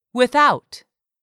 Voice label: "without", English; 3000 words

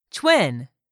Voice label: "TWIN", English; 3000 words

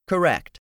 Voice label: "CORRECT", English; 3000 words

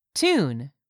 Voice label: "tune", English; 3000 words

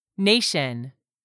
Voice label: "nation", English; 3000 words

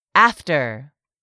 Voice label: "AFTER", english; 3000 words

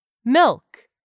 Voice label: "milk", English; 3000 words

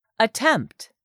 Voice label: "attempt", english; 3000 words

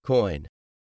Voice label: "coin", English; 3000 words

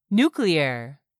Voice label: "nuclear", English; 3000 words

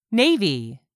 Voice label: "navy", English; 3000 words

